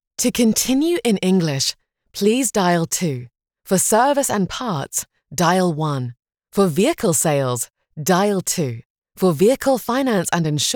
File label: english british IVR